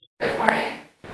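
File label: Voice Acting Spanish Female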